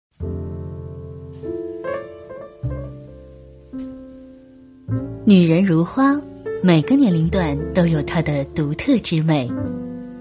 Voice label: 女 广告 产后修复中心 磁性知性; 妈妈咪呀产后修复中心

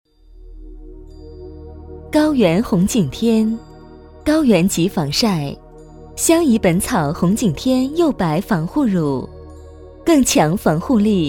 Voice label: 女 广告 时尚大气, 国语女声配音; 相宜本草

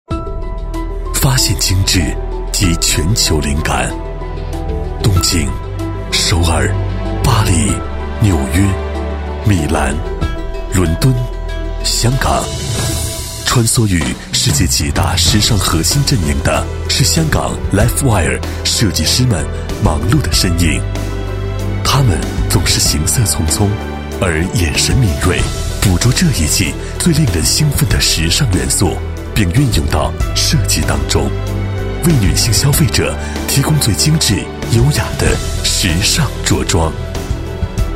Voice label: 男 专题, 国语男声配音; 香港亮点国际时装; 交广主播

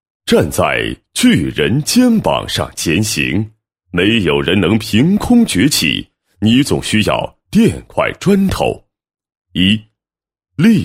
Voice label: 男 散文; 站在巨人的肩膀上前行

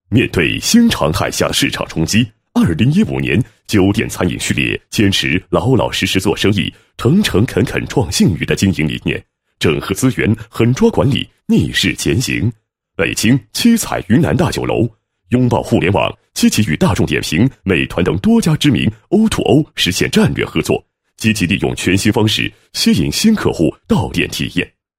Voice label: 男 脚本 酒店餐饮版块脚本

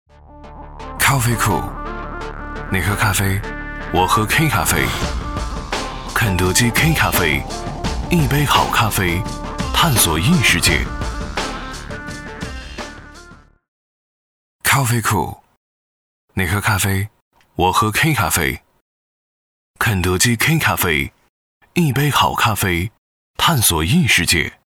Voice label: 男, 时尚质感; 肯德基K咖啡

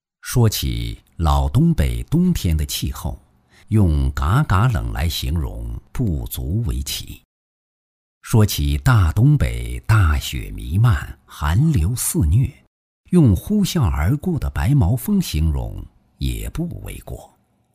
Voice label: 男 幽雅 隽永, 磁性浑厚抒情; 旅游宣传片; 扎兰屯; 国语男声配音; 男9磁性浑厚抒情

